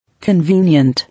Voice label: "Convenient", English

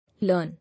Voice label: "Learn", English

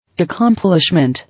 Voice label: "Accomplishment", English

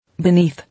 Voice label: "Beneath", English

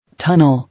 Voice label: "Tunnel", English

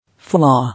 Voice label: "Flaw", English

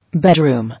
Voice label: "Bedroom", English

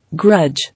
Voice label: "Grudge", English

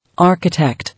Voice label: "Architect", English

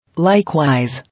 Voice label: "Likewise", English

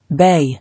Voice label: "Bay", English

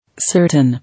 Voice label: "Certain", English

